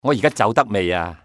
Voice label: "can i", male; XBOX; civ